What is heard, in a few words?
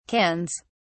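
"cans", British English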